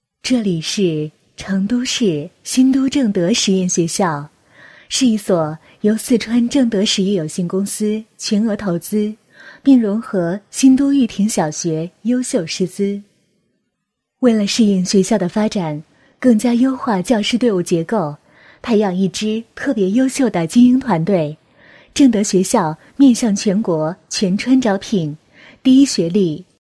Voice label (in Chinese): 女 学校; 正德实验学校